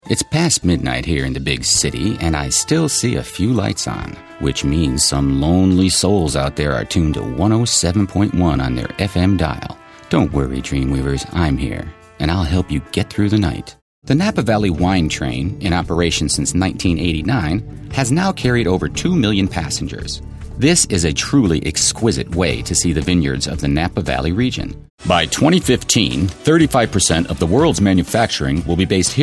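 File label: American English, narration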